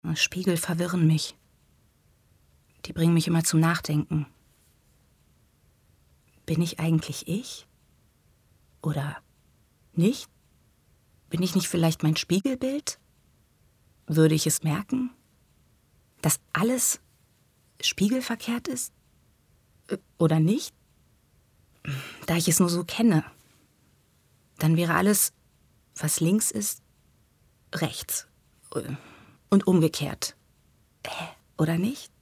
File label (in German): Innerer Monolog